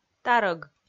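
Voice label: "тарӧг", Komi, female; audio dict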